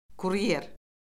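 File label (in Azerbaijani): "kuryer", Azerbaijani; Orfoqrafiya Lüğəti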